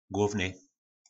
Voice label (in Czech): "Głóvny", nářečí Po našimu; Hlavní